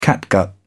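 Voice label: "catgut", British English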